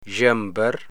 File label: "zhambar", amharico